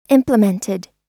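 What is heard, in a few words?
"implemented", US English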